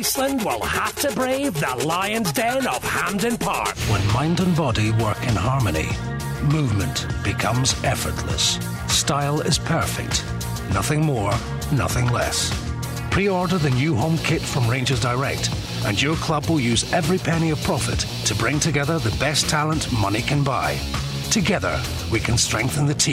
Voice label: sport and upbeat